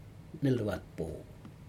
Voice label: "nelwatpo", december, Aneityum

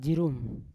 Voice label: "jirum" two, Yawa

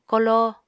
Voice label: "koló", Apatani

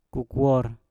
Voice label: "kokuor", Mpur; ayam

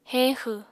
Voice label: "heñxw", apatani

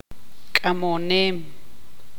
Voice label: tzutujil; llevar

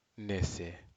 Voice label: "nese", Aneityum

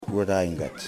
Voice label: "Turtles", Yimas

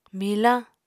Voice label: "milañ", Apatani

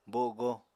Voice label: "bogo", Apatani

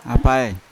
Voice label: "apai", Yawa; bow

